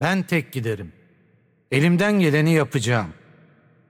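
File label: Turkish game intro; bloom minigame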